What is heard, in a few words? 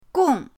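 "gong", Mandarin pinyin, fourth tone; pinyindct-open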